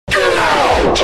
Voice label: get out scary